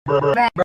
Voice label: bruh sound effect

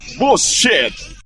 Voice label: foul mouthed engrish; bs f-zero

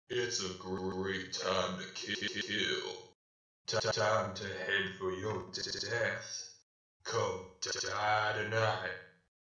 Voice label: glitchy voice; Mac Tonight

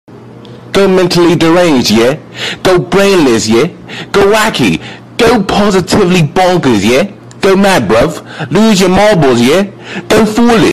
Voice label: go crazy go stupid british version

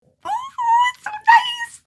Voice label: squee

